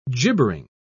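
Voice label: "gibbering", British English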